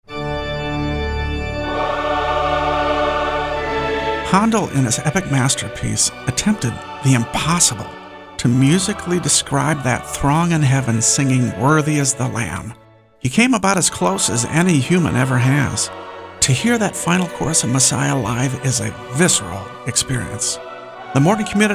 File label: radio spot